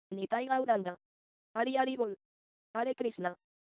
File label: Hari Haribol! Hare Krishna!, Espanol